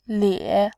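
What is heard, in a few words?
"lie3", Mandarin Chinese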